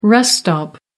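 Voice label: "rest area", British English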